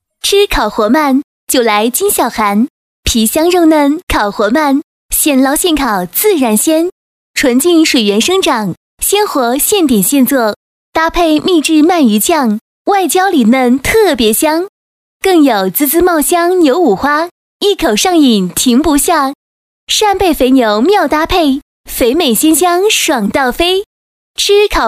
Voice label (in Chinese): 广告烤活鳗